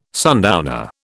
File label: "sundowner", British